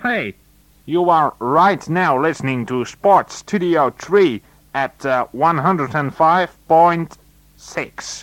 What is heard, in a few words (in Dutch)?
Jingle Engelstalig; Sportstudio 3